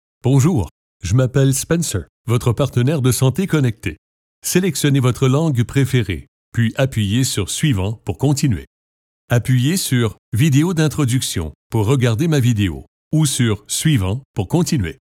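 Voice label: French Canadian tutorial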